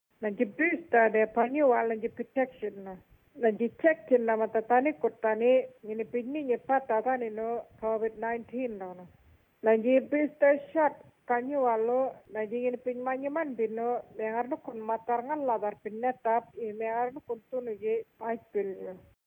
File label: booster murrinh patha